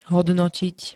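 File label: "hodnotiť", Slovak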